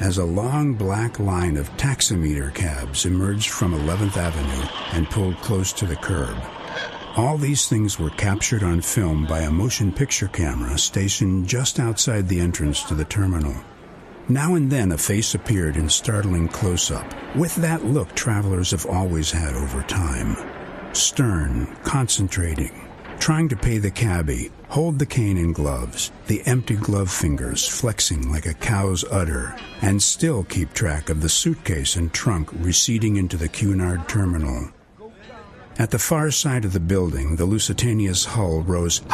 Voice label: Audio Book Novel